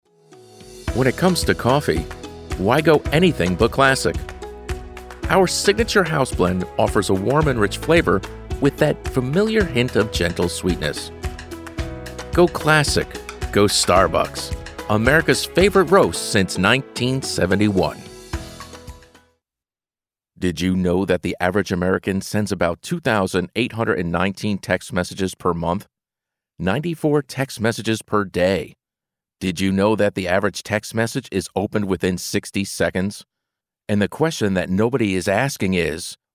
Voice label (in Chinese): 外籍男英 外语 美式英语 科技